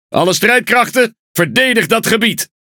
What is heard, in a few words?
NL Speech Quick Commands Ally Point; World Of Warships